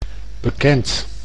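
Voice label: "bekend", Dutch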